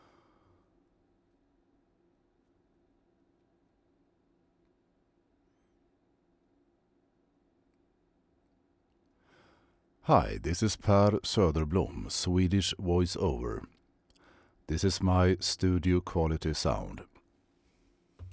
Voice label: swedish others